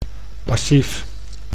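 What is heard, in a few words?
"passief", Dutch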